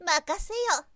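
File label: "makaseyo", Japanese, girl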